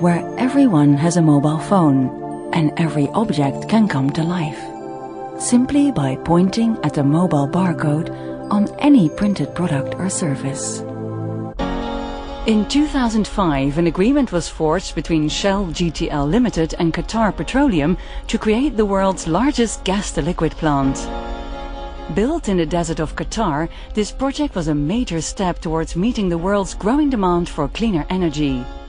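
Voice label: Dutch, female, accented English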